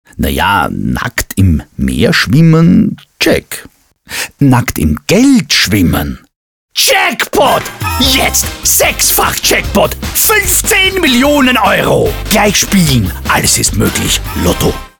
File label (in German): Hörfunk; Sechsfach-Jackpot